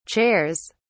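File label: "chairs", British English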